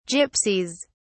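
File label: "gypsies", British English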